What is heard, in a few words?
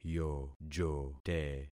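"yojote", concatenated syllables; Affixing experiment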